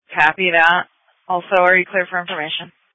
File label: Sheriff Dispatch